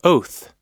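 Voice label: "oath", American English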